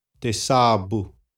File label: "te tsabu", xavante